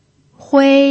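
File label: "hui" first tone, pinyin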